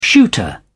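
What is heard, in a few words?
"shooter", British English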